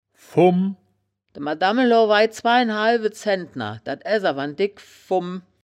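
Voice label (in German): "fumm", wittlicher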